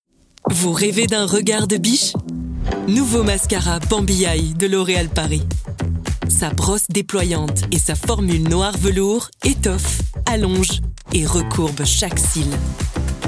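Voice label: French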